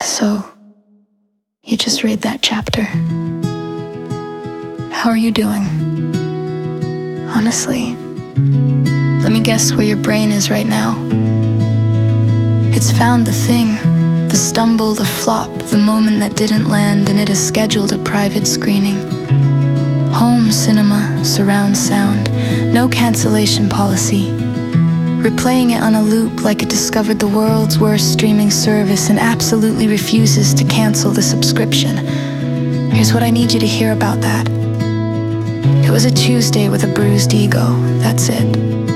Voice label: Female; do it scared female version